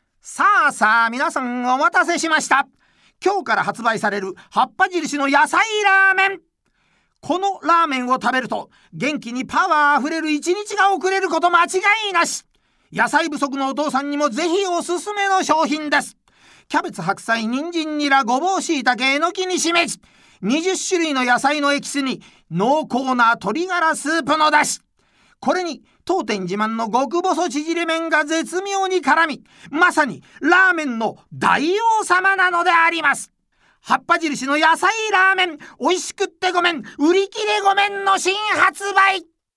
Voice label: 売り口上

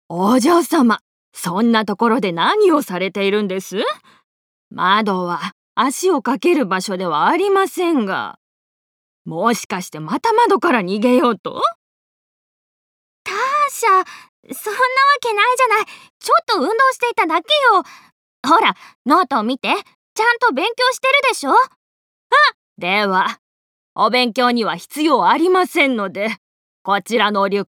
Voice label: ばあやとお嬢様, ボイスサンプル

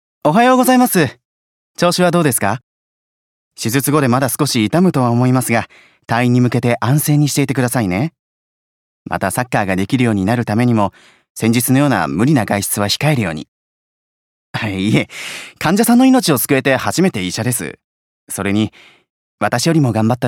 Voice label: 若いドクター

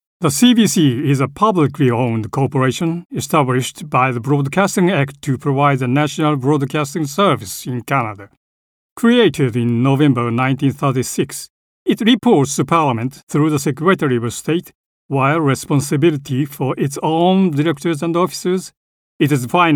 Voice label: 英語

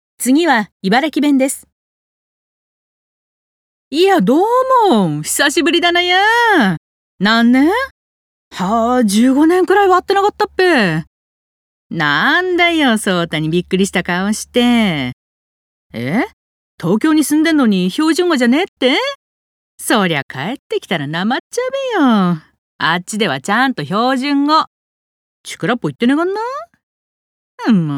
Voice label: 茨城弁

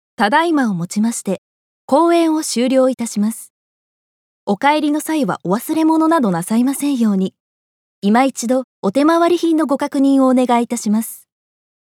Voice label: 場内アナ

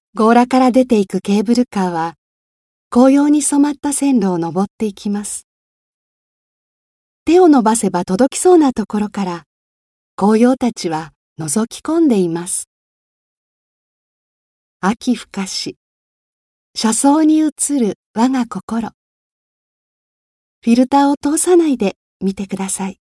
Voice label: 紀行ナレ

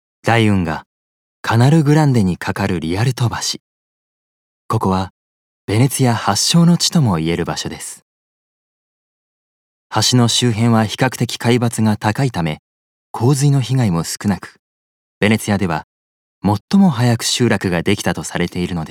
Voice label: 紀行ナレ

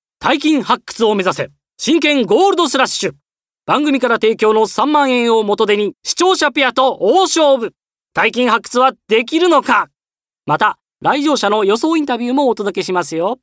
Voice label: 番宣

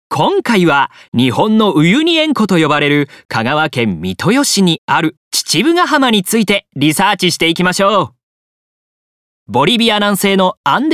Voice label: 番組ナレーションアップテンポ

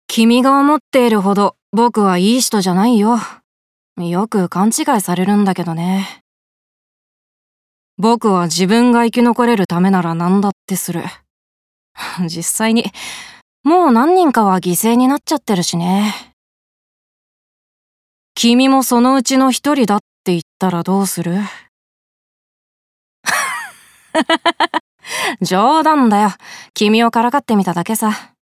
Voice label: 少年クール

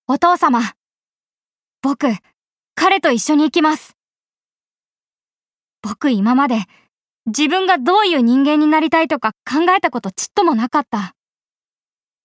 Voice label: 少年

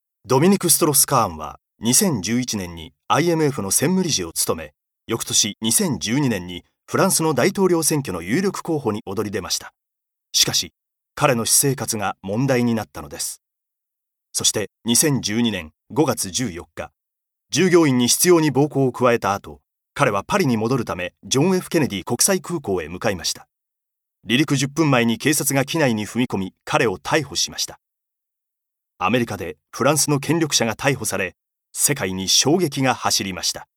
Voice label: 歴史解説

